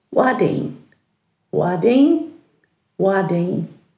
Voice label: "wadhiin", Dhurga